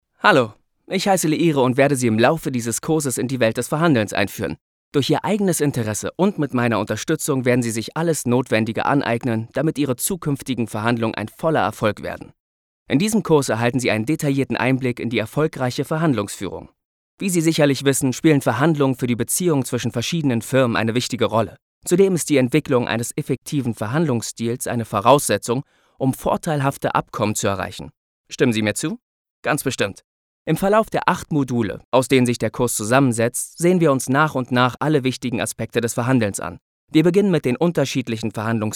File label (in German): elearning, deutsch maenner; sprecher